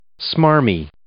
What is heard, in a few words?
"smarmy", English